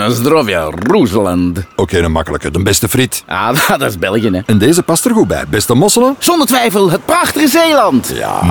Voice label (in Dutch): Zeker Zeeuws Radio